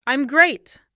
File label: "Im great", voz grossa; estou bem em inglês